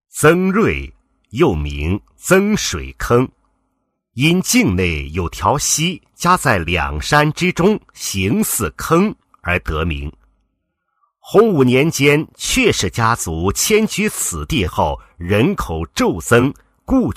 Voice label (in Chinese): 祠堂家族配音, 国语男声配音; 红色专题